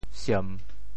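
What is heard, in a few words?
"siem3", Teochew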